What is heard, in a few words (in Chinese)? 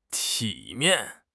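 "体面", Chinese; HSK6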